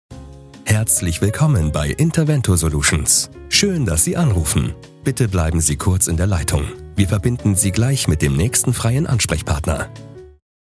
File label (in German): Telefonansage freundlich einladend; sprecher-demos